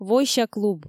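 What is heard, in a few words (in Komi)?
"войся клуб", Komi, female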